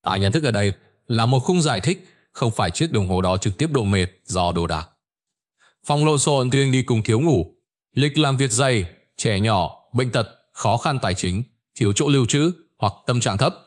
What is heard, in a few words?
narration; Vi Sao Mot Can Phong Lon Xon Co The Lam Ban Met Moi; StickerMan